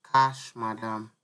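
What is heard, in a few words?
"cash madam", Nigerian Pidgin, male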